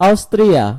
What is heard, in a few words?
"austria", id; gcompris-data; geography